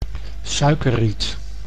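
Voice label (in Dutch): "suikerriet", Dutch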